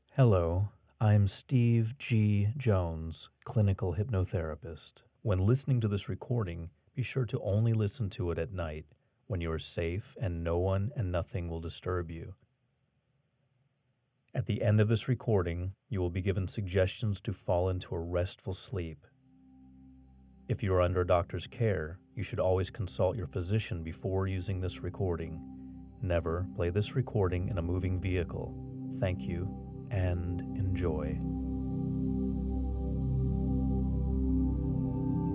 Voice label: meditation hypnosis